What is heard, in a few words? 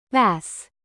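"bass", noun, British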